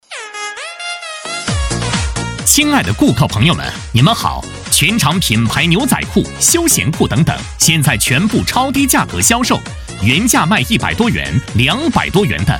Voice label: 男 促销广告 清仓大甩卖

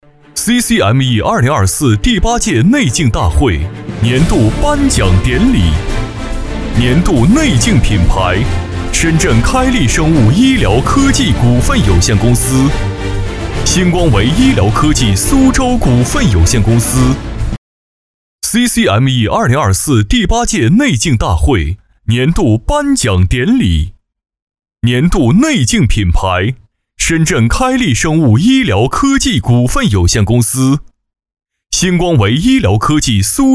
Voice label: 男 颁奖 年度颁奖典礼

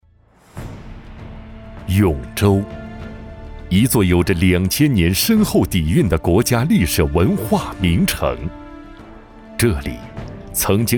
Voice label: 男 党政专题; 永州市公安局冷水滩分局教育整顿

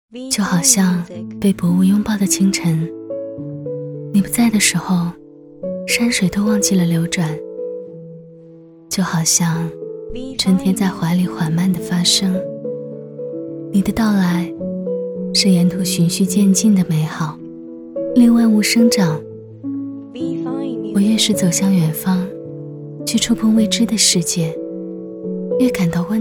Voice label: 女, 旁白 深情表白; 我喜欢你

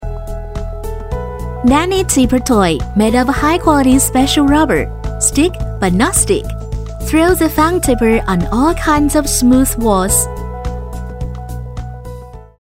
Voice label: 英女 英语广告 儿童产品

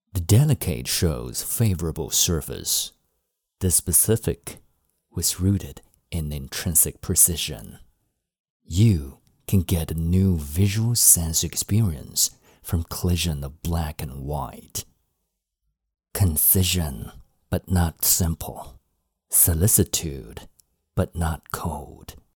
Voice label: 英男 专题; iite

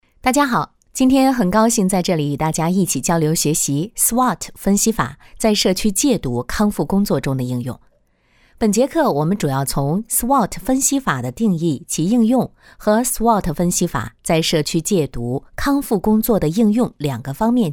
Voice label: 女 课件自然说话

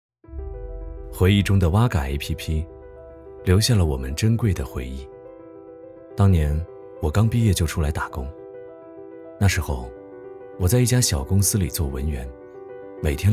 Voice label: 男, 独白走心

